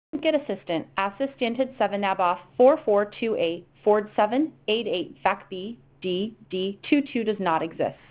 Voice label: Error Audio, inbound calls